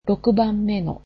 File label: "sixth", japanese